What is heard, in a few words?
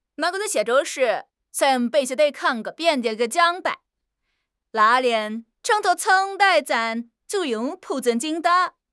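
"扒", Chinese; HSK6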